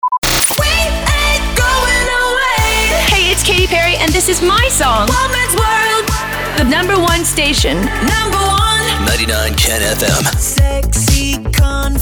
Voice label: SWEEPER, POWER INTRO, 120BPM